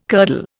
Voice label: "curdle", Indian